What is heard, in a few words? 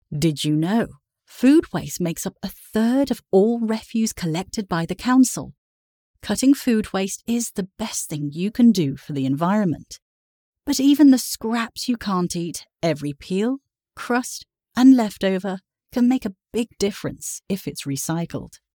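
English British, female, voice over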